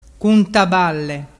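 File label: "cuntaballe", Vernazzese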